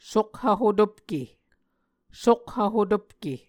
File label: "sokhv-hotopke", Muscogee